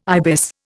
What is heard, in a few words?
"ibis", Indian